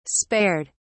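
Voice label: "spared", British English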